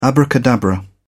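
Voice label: "abracadabra", English, British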